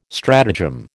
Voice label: "stratagem", American

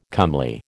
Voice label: "comely", American

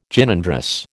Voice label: "Gynandrous", American

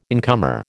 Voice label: "incomer", American

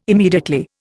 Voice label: "immediately", Indian

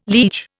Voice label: "liege", Indian

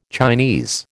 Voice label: "Chinese", American

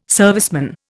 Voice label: "serviceman", Indian

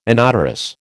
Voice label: "inodorous", American

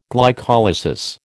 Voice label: "glycolysis", American

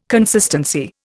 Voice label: "consistency", Indian

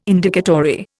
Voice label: "Indicatory", Indian

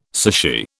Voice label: "sushi", British

